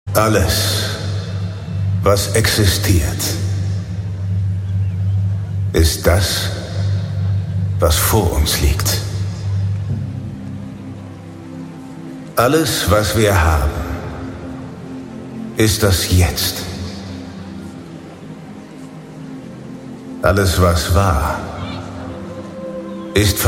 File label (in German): Festivalstimme; Gluecksgefuehle